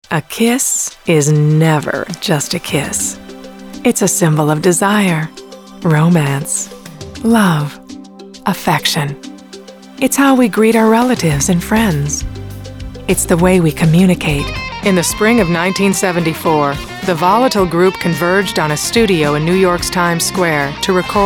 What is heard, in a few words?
narration